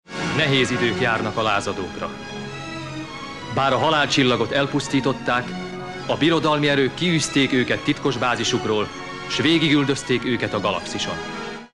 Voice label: narrator; esb; 1982